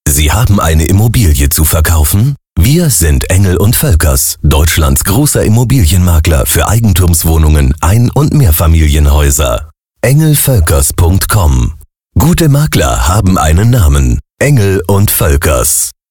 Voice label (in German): Spot; Engel